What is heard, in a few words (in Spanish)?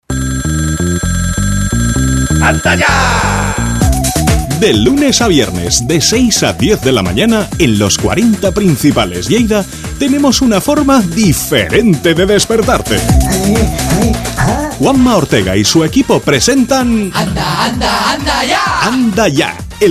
Publicidad Radio voces comerciales musica; Anda Ya